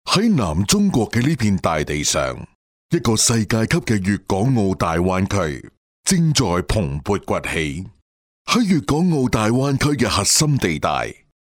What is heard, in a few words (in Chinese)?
男粤 专题 企业 楼盘大气, 粤语